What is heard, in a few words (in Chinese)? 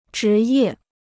"职业", Chinese